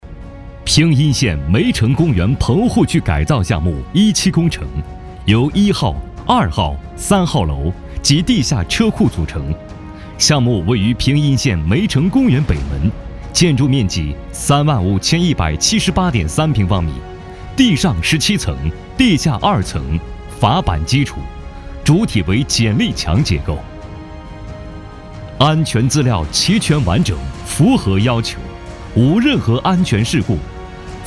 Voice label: 男 工程评优片 稳重大气

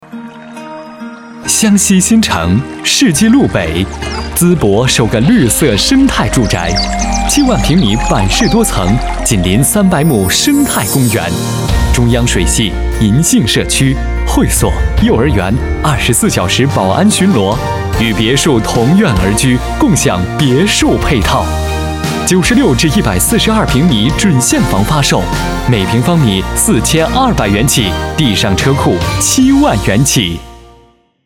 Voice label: 男 广告; 生态住宅

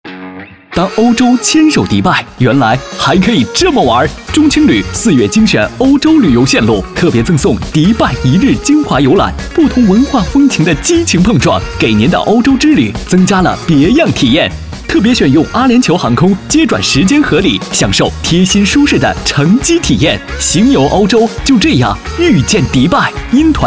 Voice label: 男2广告; 迪拜之旅

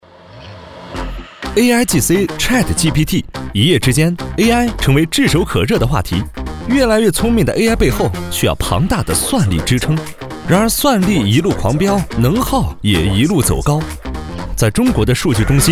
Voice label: 男, 年轻趣味

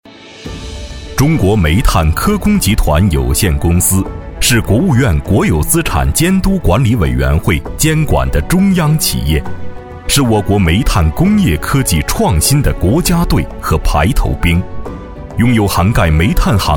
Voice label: 男 员工宣传片; 江仓一号井员工宣传片

